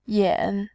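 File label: "yan", Mandarin Chinese, tone 3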